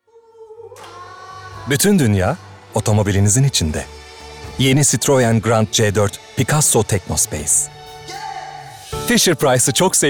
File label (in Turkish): REKLAM